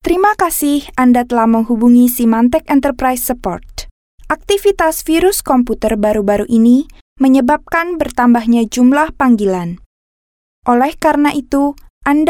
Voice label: Bahasa Indonesia IVR VO, Bahasa Indonesia Female

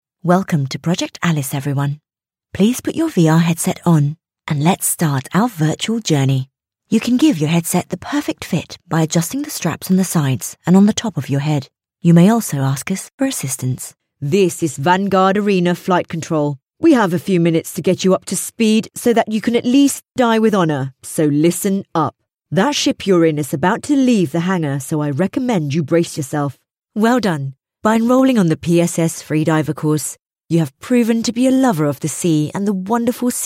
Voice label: UK English VR AR Guides

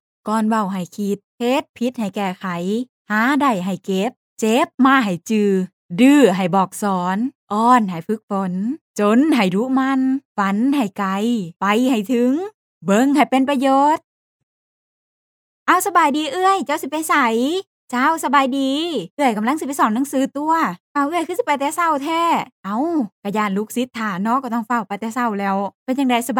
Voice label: Lao, Lao Female

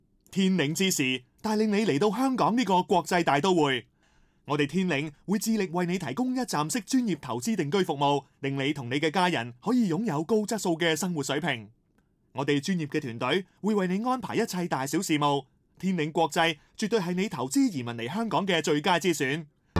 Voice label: Cantonese TVC

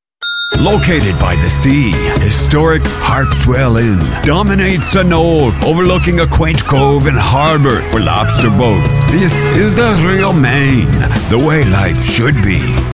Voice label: US English; Harpswell Inn

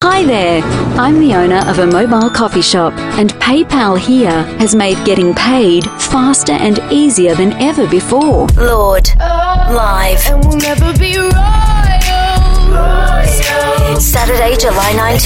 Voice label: US English Australian Commercial

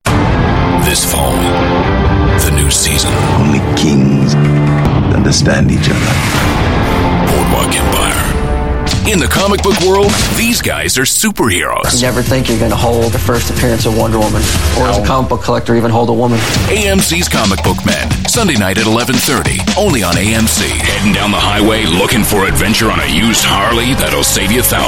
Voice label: US English voice

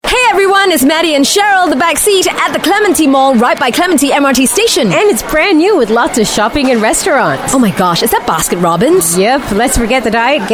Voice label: Chatty, English Singaporean Female; ClementiMall

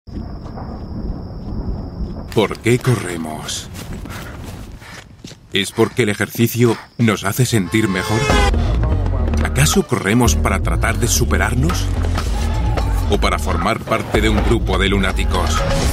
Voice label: Euro Spanish; Garmin Forerunner

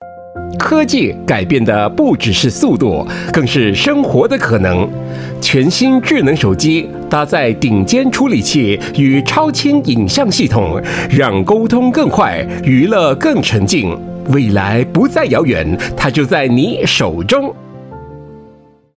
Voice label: Mandarin China Tech Futuristic

